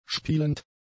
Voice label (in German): "spielend", german; adjectives